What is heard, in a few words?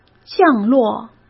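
"降落", Mandarin Chinese; hsk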